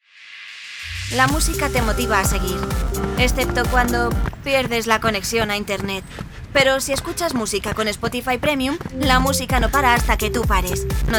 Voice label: es audio

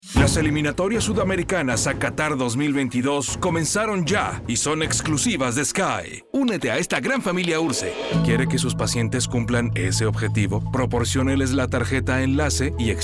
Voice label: PROMOCIONAL

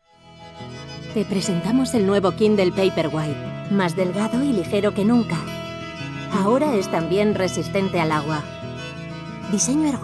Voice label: EXPLICATIVO SUAVE

